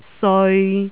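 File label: "seoi3", Cantonese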